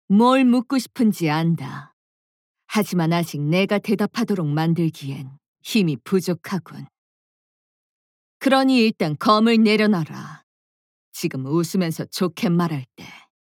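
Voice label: voice Korean gain; Sibylle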